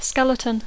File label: "skeleton", English